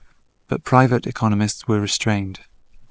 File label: Reverb far small